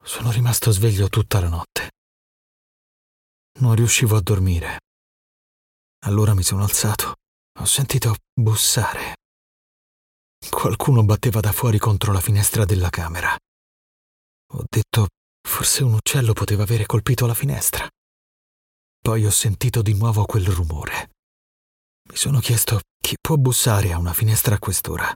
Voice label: italian voice tv series dubbing